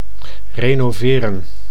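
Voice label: "renoveren", Dutch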